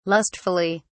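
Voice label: "lustfully", British English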